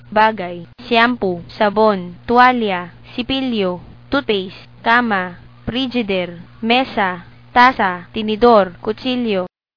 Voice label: filipino